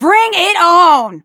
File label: battle en; cookie run